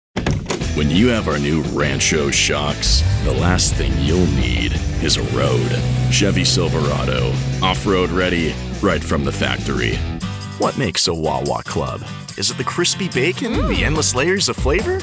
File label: Commercial